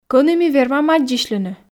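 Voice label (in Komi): кӧні ми вермам аддзысьлыны, Komi, female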